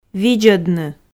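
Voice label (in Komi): "видзӧдны", Komi, female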